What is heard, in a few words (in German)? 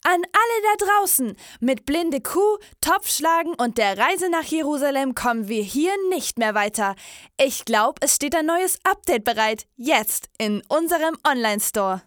maedchen